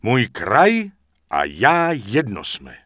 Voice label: Night Elf Male Congratulations; wowpreklad; czwow; NightElfVocalMale